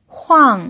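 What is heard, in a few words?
"huang" fourth tone, pinyin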